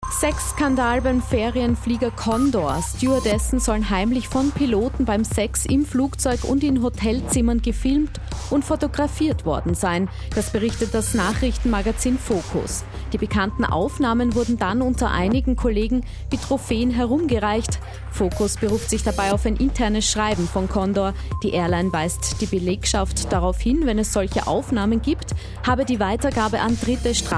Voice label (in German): Interview; Kronehit; Erotik-Affaere Condor